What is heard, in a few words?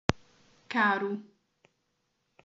"caro", portuguese